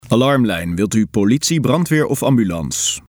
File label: dutch